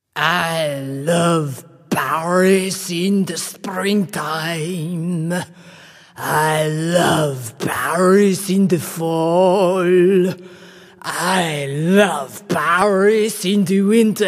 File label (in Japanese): ojisan